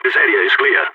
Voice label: cleared area, balkan; vo